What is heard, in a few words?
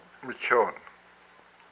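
"a smell", Hupa, male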